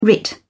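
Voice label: "writ", English, British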